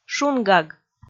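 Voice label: "шунгаг", Komi, female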